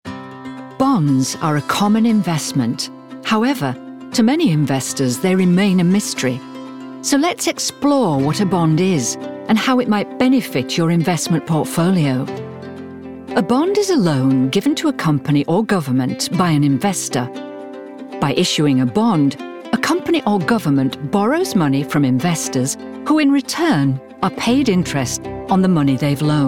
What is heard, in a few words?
Corporate